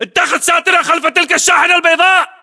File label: order cover van white, battle chatter, english